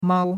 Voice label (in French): "mao1", chinois; Cours-de-Chinois